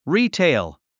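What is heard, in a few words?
"retail", English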